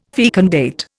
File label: "fecundate", Indian